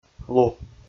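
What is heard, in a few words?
"лу", Komi, male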